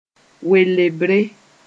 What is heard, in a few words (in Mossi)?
"welebre", moore